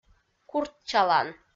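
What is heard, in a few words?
"курччалан", Komi, female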